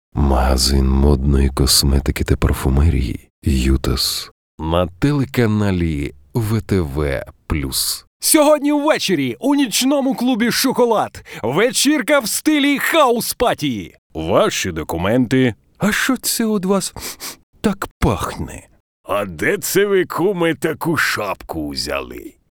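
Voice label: Ukrainian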